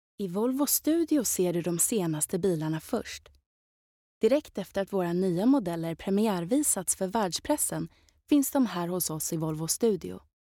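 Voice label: Swedish, Corporate; GoLocalise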